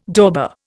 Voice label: "dauber", Indian